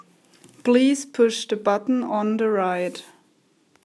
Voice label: Button Right Woman